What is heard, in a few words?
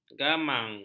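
"gamang", Malay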